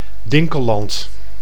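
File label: "Dinkelland", Dutch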